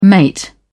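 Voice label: "mate", British English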